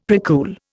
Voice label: "precool", Indian; Dictionary

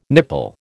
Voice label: "nipple", American

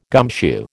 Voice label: "gumshoe", American

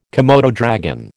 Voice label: "komodo dragon", American

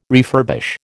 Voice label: "refurbish", American

